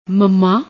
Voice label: "mamma", Punjabi